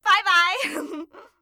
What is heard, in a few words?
"bye bye", voices